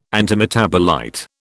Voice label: "antimetabolite", British